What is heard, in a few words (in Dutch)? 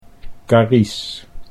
"Carice", Dutch